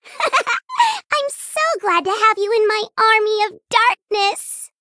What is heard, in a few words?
Female Gnome Bark